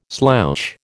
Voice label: "slouch", American